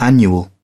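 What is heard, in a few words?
"annual", British English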